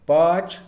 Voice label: "por eso", Mixe, hombre; Cantidad; INALI

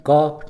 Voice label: "pueblo", Mixe, hombre; Sociales

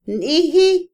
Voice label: Otomí, mujer; temprano; INALI

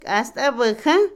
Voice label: Otomí, mujer; oro; Acciones; Vocabulary; INALI

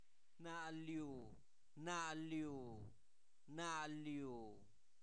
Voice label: fresco, Zapoteco, santo domingo albarradas; adjetivos; INALI

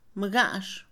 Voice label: "hermanas", Mixe, Mujer; INALI

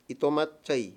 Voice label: "Dios", Mayo; Religón y creencias

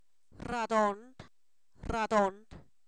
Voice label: Zapoteco, san miguel albarradas; adjetivos

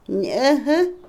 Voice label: masculino, Otomí, mujer; Animales; INALI

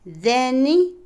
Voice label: luciérnaga, Otomí, mujer; Animales; INALI

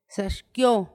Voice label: "lo mordió", Paipai; Vocabulary; INALI

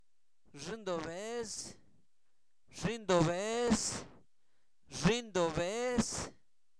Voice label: bebé, Zapoteco, santo domingo albarradas; INALI; humano

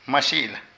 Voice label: Pájaro, Zapoteco de Petapa; Animales; Vocabulary

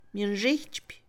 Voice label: Mixe, Mujer; sacudir; Movimiento; INALI

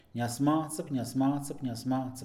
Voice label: "soltar", Mixe, hombre; Posesión

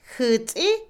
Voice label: Otomí, mujer; levantar; Movimiento; INALI

